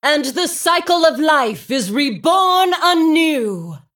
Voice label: Female Dragon Play